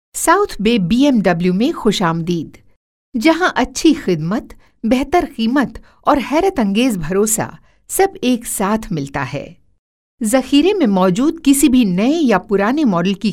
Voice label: Urdu; foreign languages